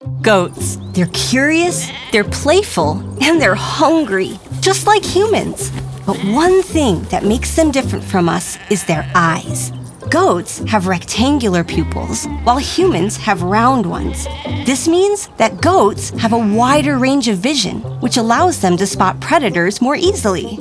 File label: Animal Narration